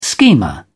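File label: "schemer", British English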